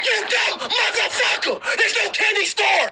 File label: angry